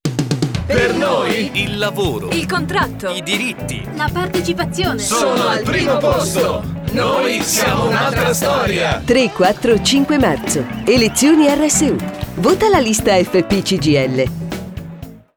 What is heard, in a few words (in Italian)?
SPOT RADIO